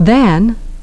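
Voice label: "then", English pronunciation; ðen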